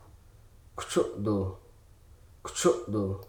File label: "immediate", Hruso